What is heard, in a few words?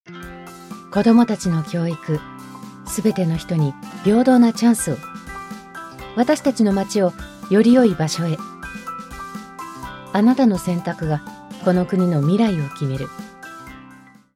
japanese political ads; Japanese voice actor